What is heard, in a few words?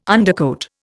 "undercoat", Indian